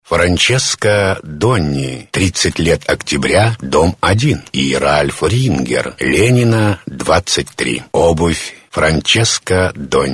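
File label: russian male voice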